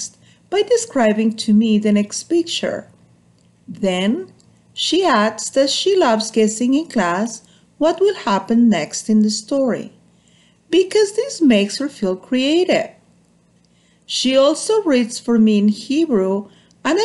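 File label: English Speaking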